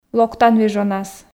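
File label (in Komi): локтан вежонас, Komi, female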